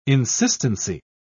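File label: "insistency", British English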